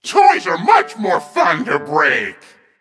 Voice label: Male Mech Play